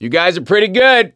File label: hurrah, survivor voice; gambler